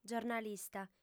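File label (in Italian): "giornalista", lingua sarda, female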